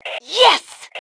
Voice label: Yes, Female